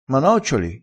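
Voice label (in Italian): "ingolli", crespinese dialettale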